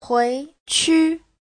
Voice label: "hui2qu5", chinese